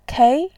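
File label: "kei2", Mandarin Chinese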